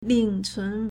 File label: "ling4cun2", Chinese